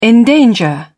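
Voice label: "endanger", British English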